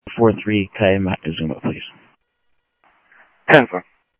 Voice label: scanner audio, Sheriff